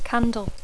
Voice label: "candle", English

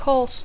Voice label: "course", English; curs; rumb

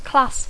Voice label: "class", English

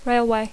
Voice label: "railway", English

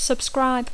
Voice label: "subscribe", English

